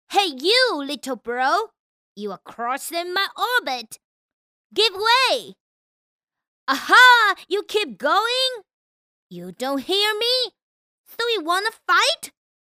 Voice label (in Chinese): 女-英文角色【5、6岁男童】